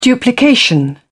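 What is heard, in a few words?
"duplication", British English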